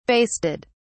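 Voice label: "basted", British English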